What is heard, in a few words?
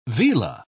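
"vela", British English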